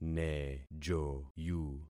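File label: "nejoyu", concatenated syllables; Affixing experiment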